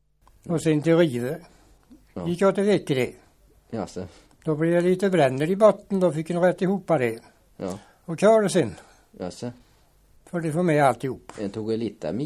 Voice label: Väse, dialekter; Svenska sagor; Folkminnen

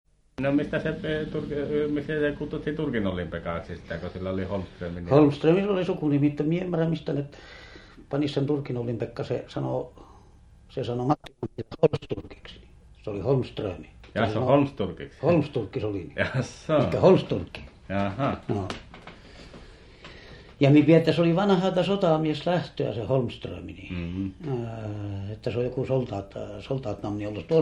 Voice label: Övertorneå, dialekter; Folkminnen

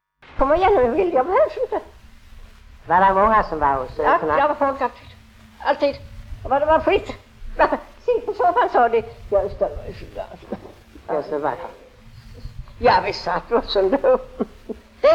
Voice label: Lunds stad SK, dialekter; Folkminnen

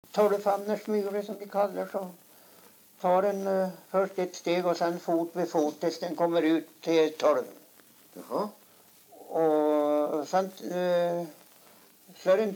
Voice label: dialekter; Folkminnen

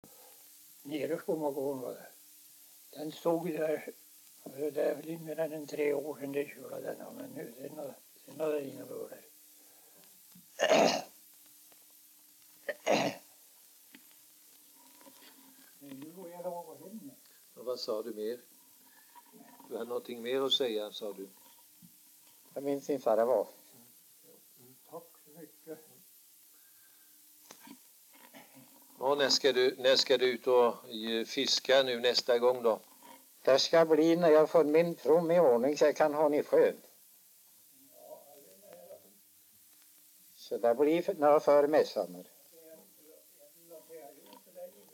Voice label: dialekter; Folkminnen

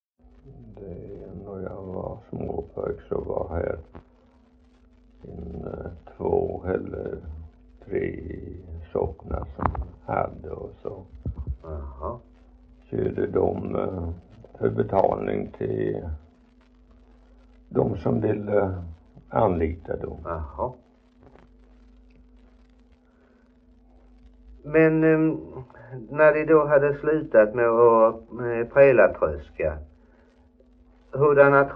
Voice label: Idala, dialekter; HALL

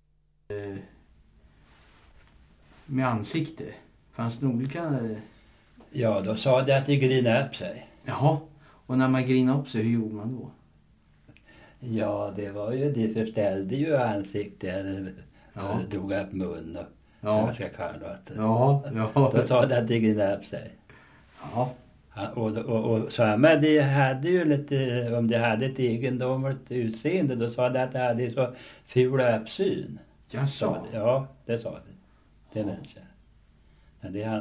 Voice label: Viby Hardemo, dialekter; Svenska sagor; Folkminnen

